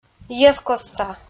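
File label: "йӧзкостса", Komi, female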